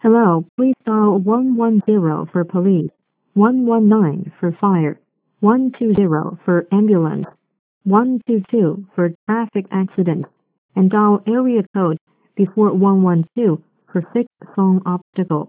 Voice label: voice girl english; braillesense